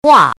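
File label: "guà", Chinese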